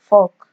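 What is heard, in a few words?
"fuck", Nigerian Pidgin, female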